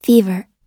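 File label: "fever", English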